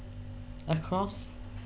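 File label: "across", British, female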